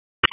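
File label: Police Southwest District Dispatch; Raleigh; p25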